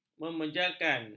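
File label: "memejalkan", Malay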